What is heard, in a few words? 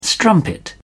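"strumpet", British English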